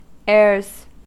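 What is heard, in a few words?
"airs" US English; pronunciations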